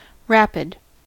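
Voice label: "rapid", US English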